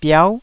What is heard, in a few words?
"biau9", taiwanese Syllables